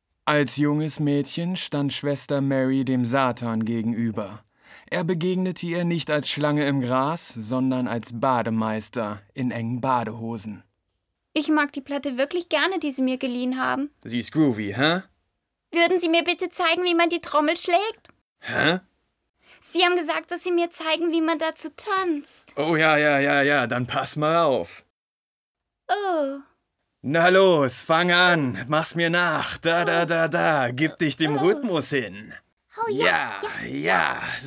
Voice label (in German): Synchronisation Zeichentrickfilm